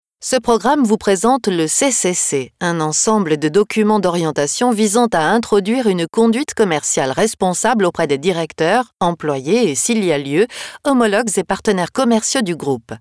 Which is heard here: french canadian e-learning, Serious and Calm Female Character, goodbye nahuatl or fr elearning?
fr elearning